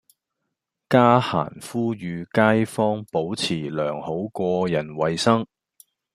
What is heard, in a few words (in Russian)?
Голоса, Гонконгский